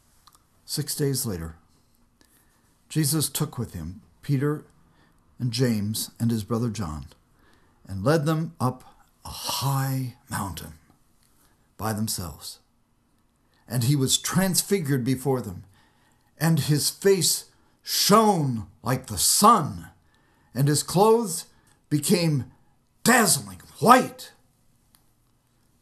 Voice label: storytelling